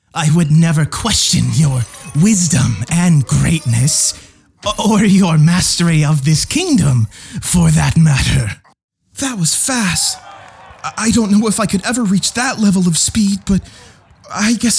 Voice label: Animation Demo